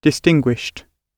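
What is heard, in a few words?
"distinguished", British English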